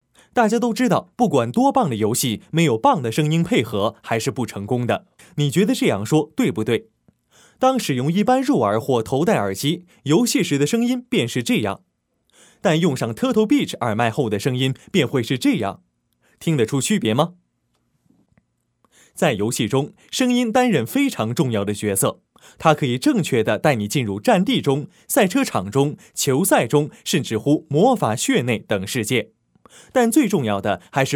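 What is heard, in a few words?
Chinese; Turtle Beach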